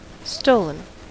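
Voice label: "stolen", US English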